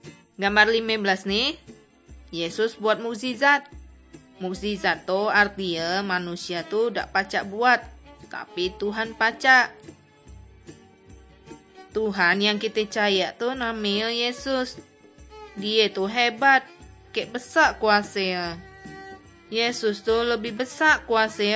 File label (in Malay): kabar baik good news malay bangka